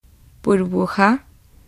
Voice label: bubble, es; gcompris

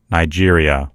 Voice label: "nigeria", en US; gcompris; geography

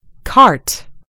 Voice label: "cart", en GB; gcompris

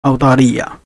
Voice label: australia; gcompris-data; geography

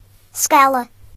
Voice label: scale, ga; gcompris

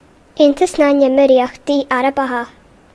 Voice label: united arab emirates, ga; gcompris-data; geography

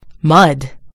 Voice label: "mud", en US; gcompris

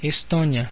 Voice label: "estonia", pt_BR; gcompris; geography

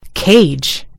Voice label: "cage", en GB; gcompris

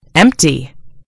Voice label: "empty", en US; gcompris

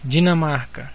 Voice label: "denmark", pt BR; gcompris; geography

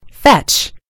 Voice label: "fetch", en US; gcompris

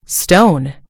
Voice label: "stone", en GB; gcompris

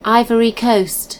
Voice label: "ivory coast", en GB; gcompris

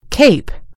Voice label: "cape", en US; gcompris

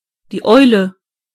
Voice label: "owl", de; gcompris

